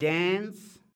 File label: "dance", shouts; Best Service; Hyper Dance